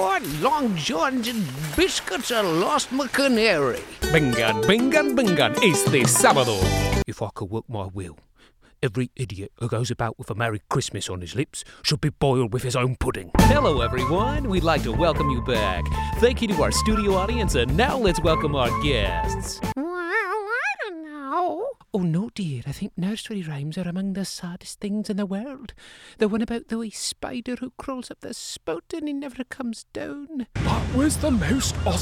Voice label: Combined, en-NZ

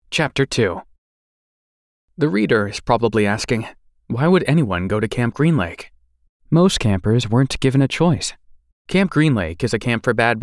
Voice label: Fiction, en-NZ

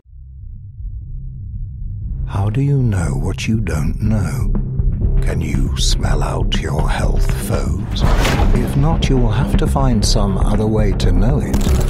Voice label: Commercial, en-US

